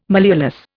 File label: "malleolus", Indian